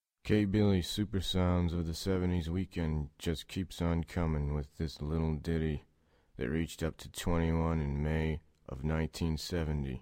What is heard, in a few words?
voiceovers